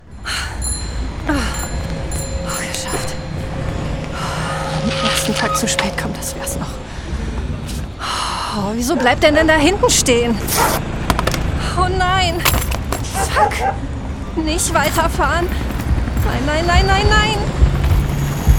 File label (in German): HOERSPIEL; lernorte